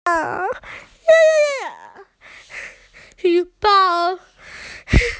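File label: "schoolbag", Mandarin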